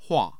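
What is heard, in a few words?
"hua", Mandarin Chinese, tone 4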